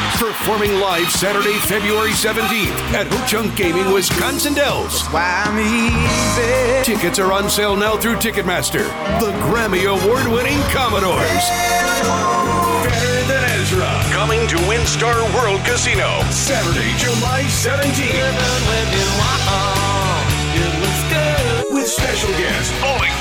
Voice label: Concert Entertainment Male